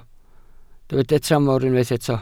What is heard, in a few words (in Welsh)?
"tramor", Welsh